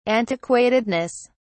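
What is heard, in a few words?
"antiquatedness", British English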